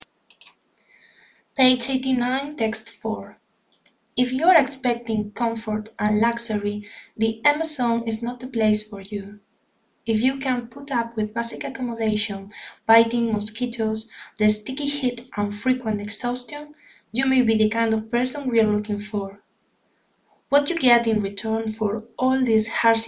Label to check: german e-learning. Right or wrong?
Wrong